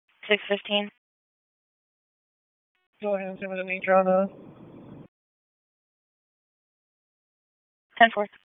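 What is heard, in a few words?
Raleigh Police Southwest District Dispatch; wake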